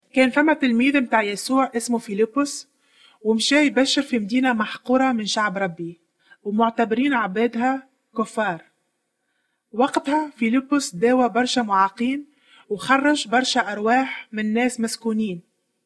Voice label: holy spirit not for sale tunisian, tunisian arabic